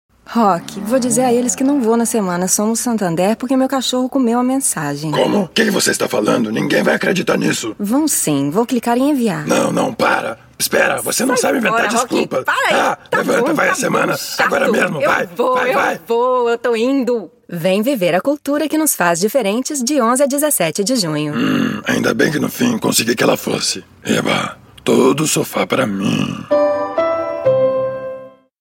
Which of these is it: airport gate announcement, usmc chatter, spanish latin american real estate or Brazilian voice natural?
Brazilian voice natural